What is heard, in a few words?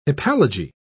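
"hypallage", British English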